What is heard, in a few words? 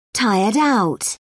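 "tired-out", British English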